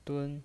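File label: "dun" Mandarin Chinese, first tone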